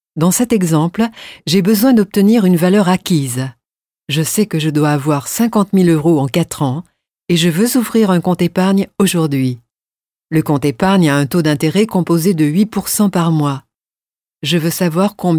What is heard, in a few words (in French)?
E-Learning; Inspire